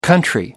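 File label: "country", British English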